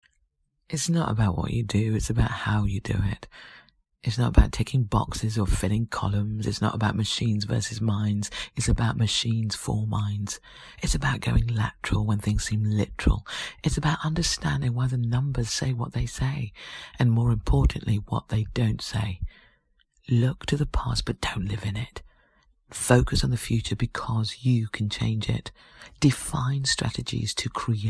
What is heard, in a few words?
Natural Read